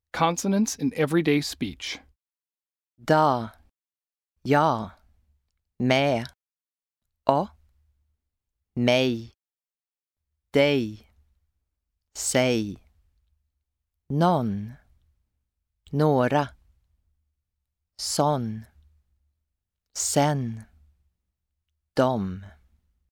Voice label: Consonants in Everyday Speech, Swedish; Beginner's Swedish; The Voices Of Sweden